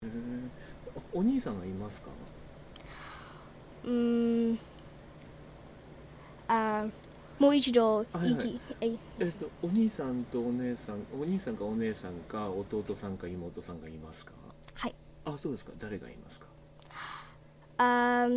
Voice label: japanese speaking novice